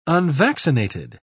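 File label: "unvaccinated", English, British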